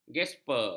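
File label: "gesper", Malay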